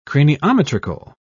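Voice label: "craniometrical", English, British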